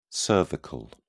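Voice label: "cervical", British English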